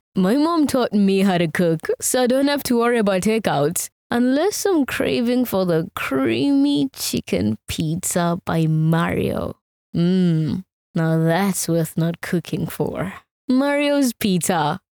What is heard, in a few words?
English Conversational soft sell